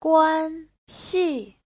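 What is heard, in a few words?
"guānxì", Mandarin Chinese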